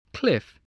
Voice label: "cliff", voice